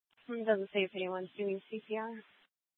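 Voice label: Police Department Dispatch, scanner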